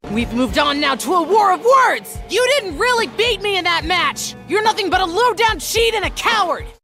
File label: preteen boy antagonist bully shouting combat kid boy voice dork nerd